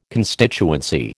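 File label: "constituency", American English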